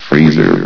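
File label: "freezer", male; Source Engine; bshift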